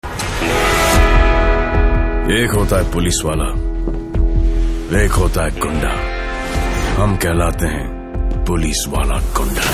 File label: Police Wala Gunda Dialogue, Hindi; Salman Khan; Dabangg 3